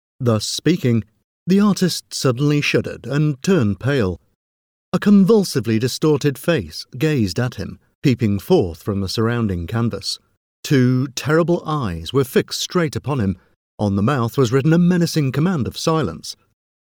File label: voiceover narrative audiobook; audiobooks; chekov mysterious portrait